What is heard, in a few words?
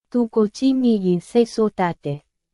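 Myanmar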